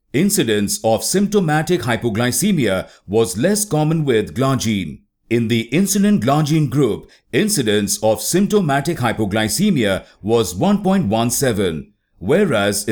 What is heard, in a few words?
eLearning Medical